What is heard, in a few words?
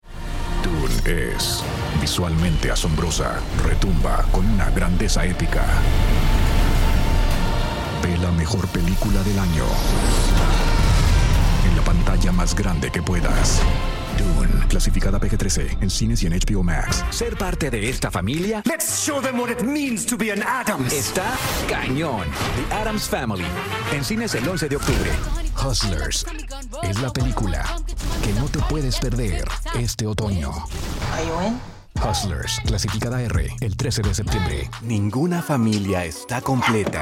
trailer